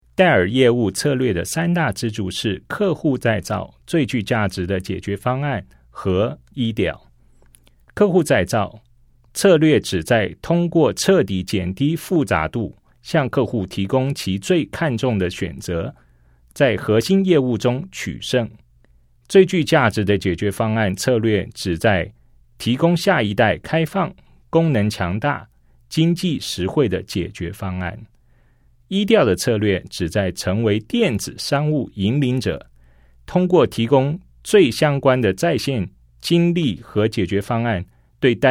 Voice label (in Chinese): CHINESE